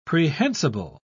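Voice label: "prehensible", English, British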